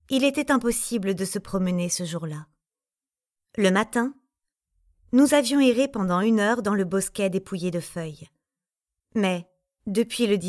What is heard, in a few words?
AUDIOBOOK; Jeane Eyre